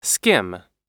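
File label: "skim", American English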